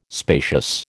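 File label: "spacious", American English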